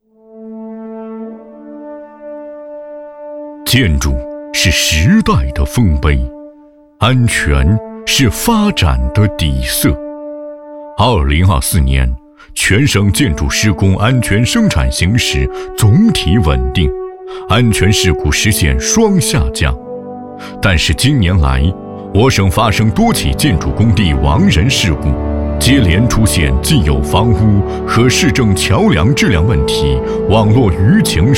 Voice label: 男 警示教育; 全省建筑工程安全质量警示片

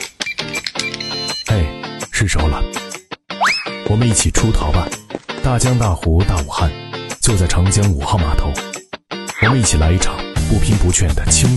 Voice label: 男 广告; 毛铺草本酒

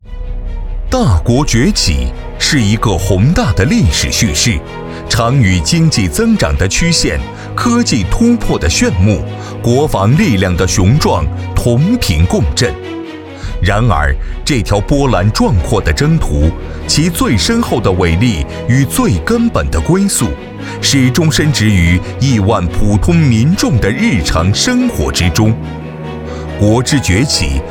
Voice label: 男 专题片

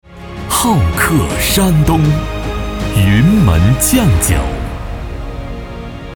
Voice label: 男 广告 好客山东 酱酒; 云门酱酒

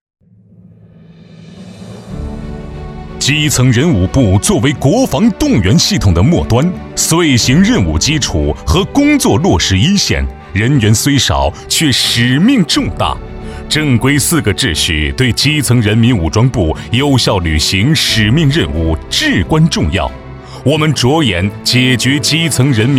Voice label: 男 基层人武部 专题

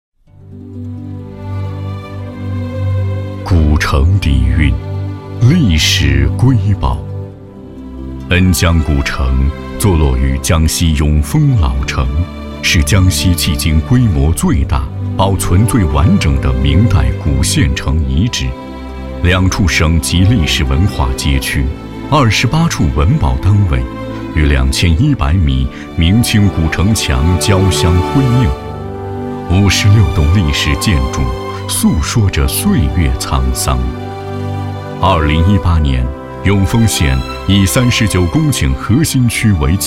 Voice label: 男 古城宣传; 恩江古城

